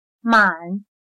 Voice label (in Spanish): "满", Chino